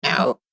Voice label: Goblin Says No, monster; Monster Sound Effects; horror sound effects